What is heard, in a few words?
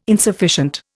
"insufficient", Indian